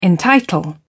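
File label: "entitle", English, British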